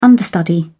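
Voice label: "understudy", English, British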